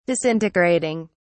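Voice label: "disintegrating", English, British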